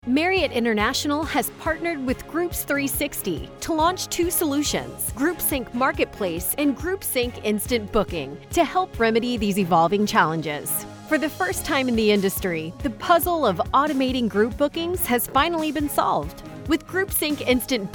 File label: Explainer; Marriott Groups360